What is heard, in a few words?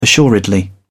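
"assuredly", British English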